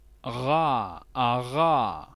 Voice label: voiced uvular fricative